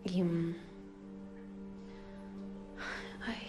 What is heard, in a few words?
Voice Acting es F